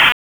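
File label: Police Northwest District Tactical 1, p25; Raleigh; wake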